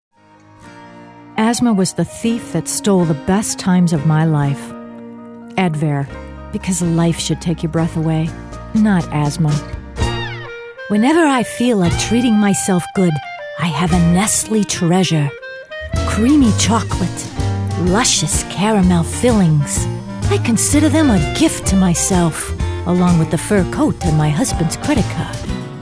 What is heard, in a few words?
Voiceover